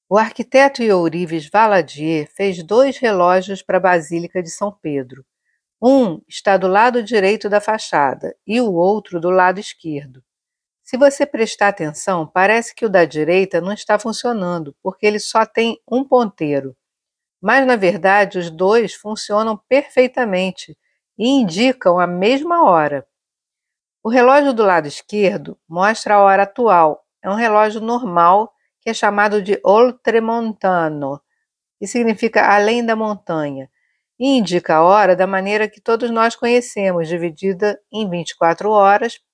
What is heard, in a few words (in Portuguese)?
Audioguia resumido; Relogios